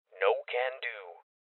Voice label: Voices Refuse Male Young; Rebel Cops